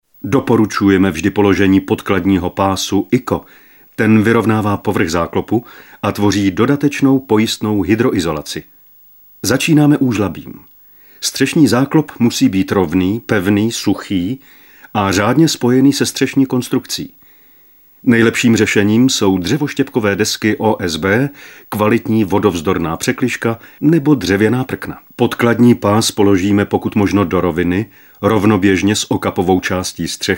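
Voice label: male Czech documentary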